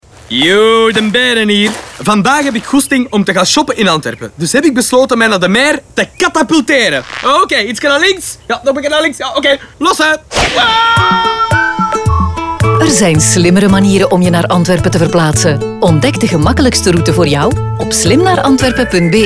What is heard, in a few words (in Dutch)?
Radio; StadAntwerpen; Slim naar VL; Muziek; Katapult